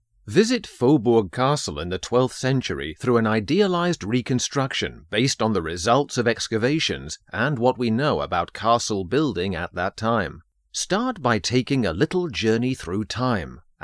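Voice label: British English Male Audio Tour Guide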